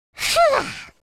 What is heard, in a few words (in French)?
relief, French speech